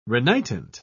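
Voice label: "renitent", English, British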